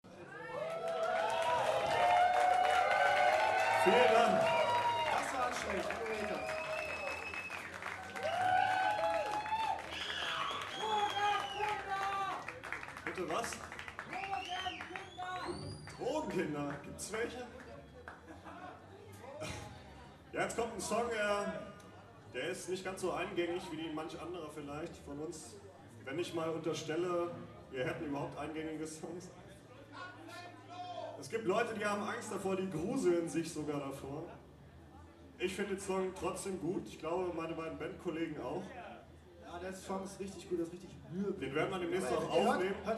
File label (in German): ansage